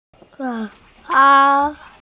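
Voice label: "zao can" Chinese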